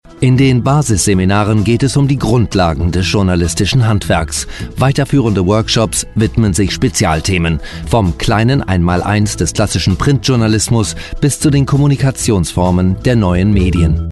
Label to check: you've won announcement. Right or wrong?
Wrong